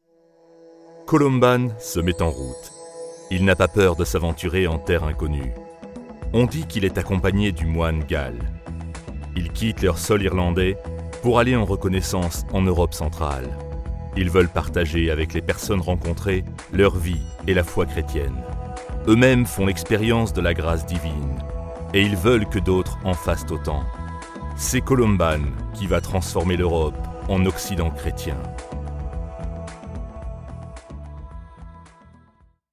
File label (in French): Voix grave documentaire; Columban